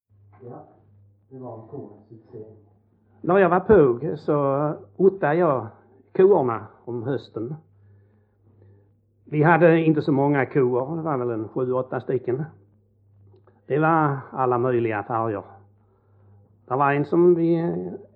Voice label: Ränneslöv HALL, dialekter; Folkminnen

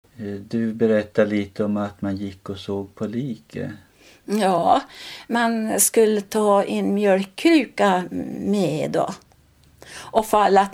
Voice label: Hille, dialekter

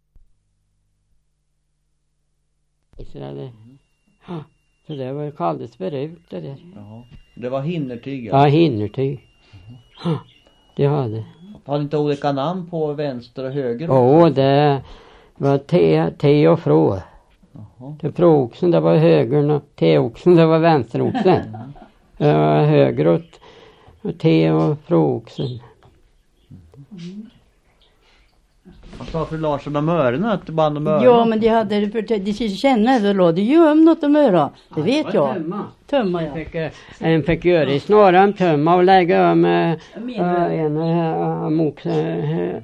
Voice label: Västra Ny, dialekter; Folkminnen

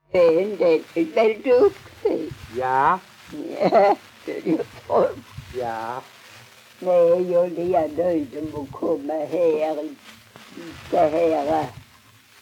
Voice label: Strövelstorp SK, dialekter; Folkminnen

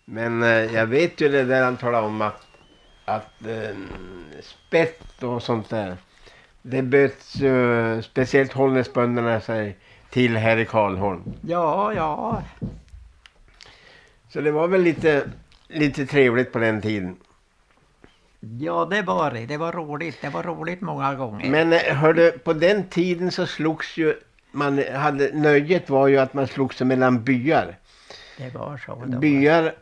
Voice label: dialekter; Folkminnen

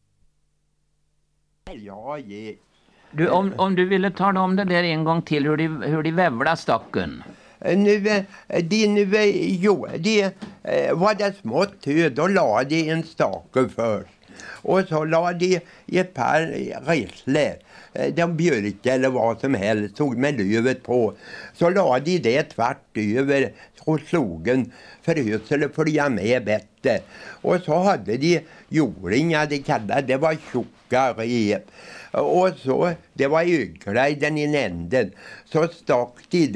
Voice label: Vesene, dialekter; Svenska sagor; Folkminnen

